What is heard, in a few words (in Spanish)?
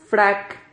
"frac", Silabas